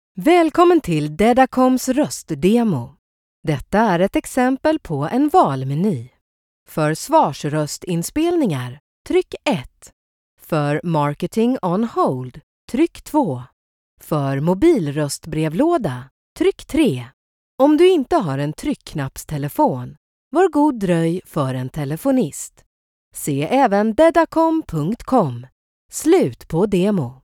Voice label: voice over Zweeds vrouw

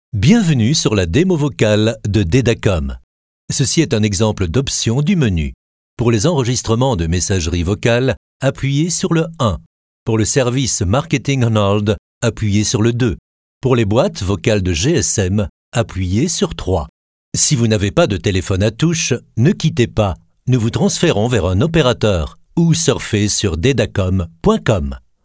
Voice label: Voice over Frans man

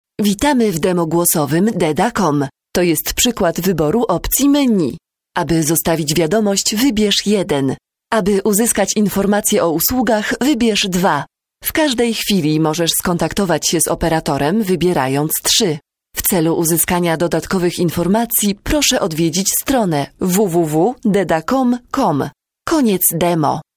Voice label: Voice over Pools vrouw

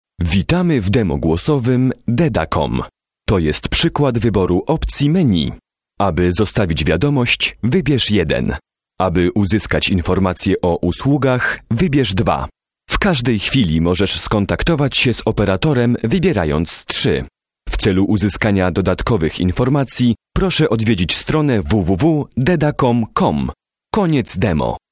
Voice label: Voice over Pools man

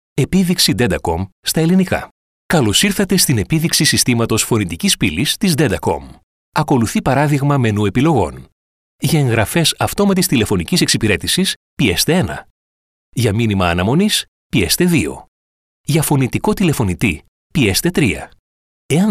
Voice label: Voice over Grieks man

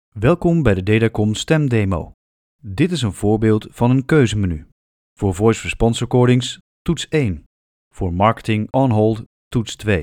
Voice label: Voice over Nederlandse man

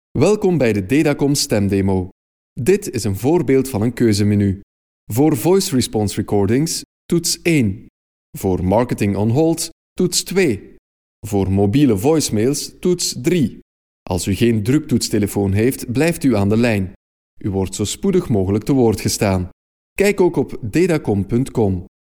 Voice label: Voice over Vlaams man

